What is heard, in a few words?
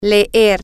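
to read, Spanish, female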